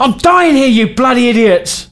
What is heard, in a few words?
Dying, male, voice commands; svencoop